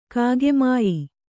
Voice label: "ಕಾಗೆಮಾಯಿ", Kannada